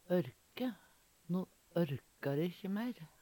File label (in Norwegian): "ørke", Numedalsmål; No ørkar e ikkje meir.; Verb